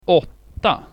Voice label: swedish "atta"